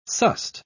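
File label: "sussed", British English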